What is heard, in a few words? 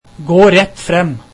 Go straight, norwegian